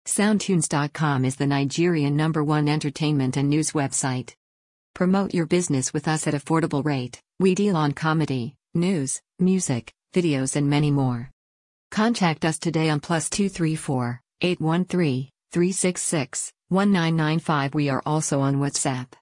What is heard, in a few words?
ads Promo